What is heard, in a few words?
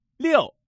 single 6, male